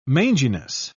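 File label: "manginess", British English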